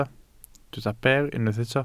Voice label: "ber", Welsh

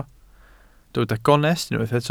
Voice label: "gonest", Welsh

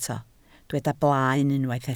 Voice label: "blaen", welsh

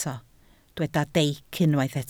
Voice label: "deic", Welsh

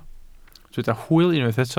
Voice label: "hwyl", Welsh

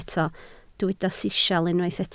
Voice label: "sisial", Welsh

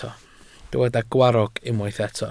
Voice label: "gwarrog", Welsh

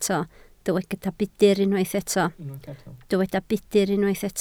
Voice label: "budr", Welsh

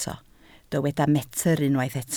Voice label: "metr", Welsh

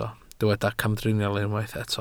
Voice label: "camdriniol", Welsh

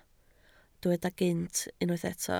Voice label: "gynt", Welsh

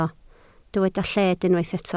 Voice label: "lled", Welsh

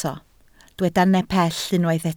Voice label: "nepell", Welsh

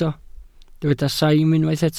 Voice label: "saim", Welsh

